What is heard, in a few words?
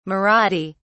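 "marathi", English, British English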